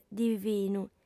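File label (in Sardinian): "divinu", female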